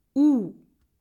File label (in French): lettre u, russe